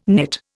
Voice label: "knit", Indian